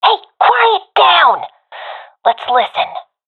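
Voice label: request quiet, professional female; vo; agents; Source Model Packs; Counter Strike Model Pack; CS2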